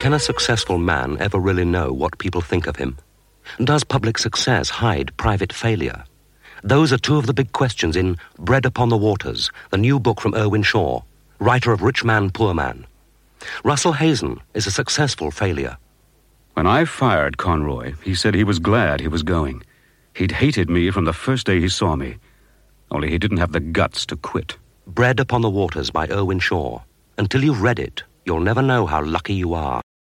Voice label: Radio Advert; Radio Hallam; Bread Upon The Waters

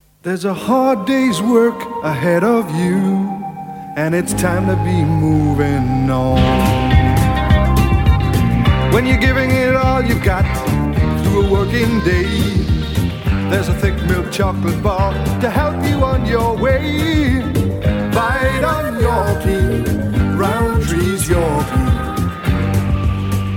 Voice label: Radio Advert; Yorkie Bar; Radio Hallam

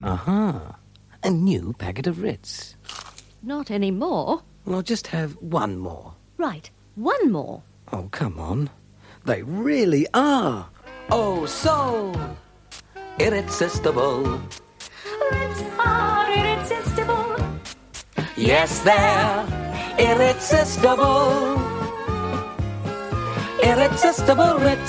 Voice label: Radio Hallam Advert - Crackers; Ritz

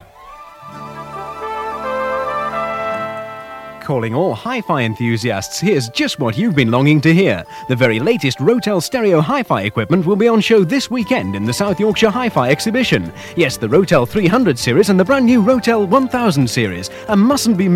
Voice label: Radio Hallam Advert; Rotel HiFi; South Yorkshire HiFi Exhibition

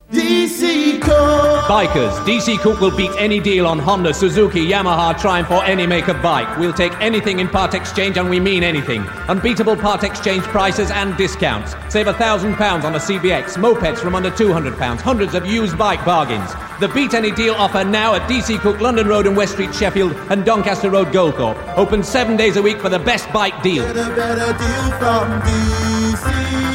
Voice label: Radio Hallam Advert; D C Cook; Bike Deals

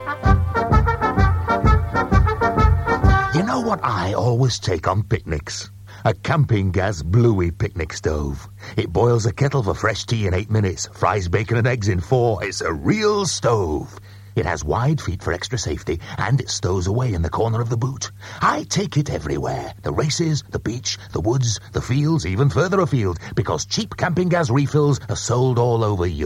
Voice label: Radio Advert, Stoves; Radio Hallam; Camping Gaz

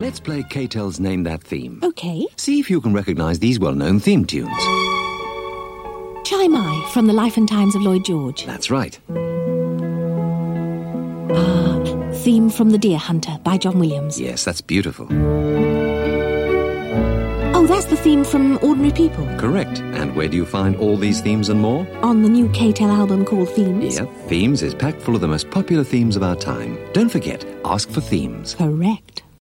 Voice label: Radio Advert, Theme Tunes; Radio Hallam; K-TEL

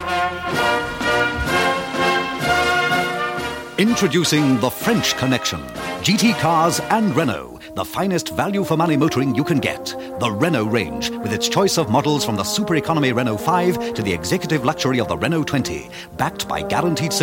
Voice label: Radio Advert; Radio Hallam; GT Cars; Renault

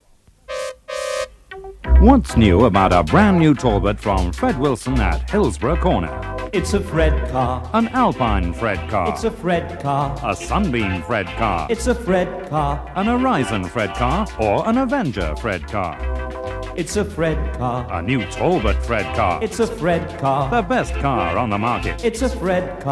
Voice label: Radio Hallam Advert; Talbot Sunbeam

